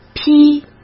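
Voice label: "批", Mandarin Chinese; hsk; chinese-flashcards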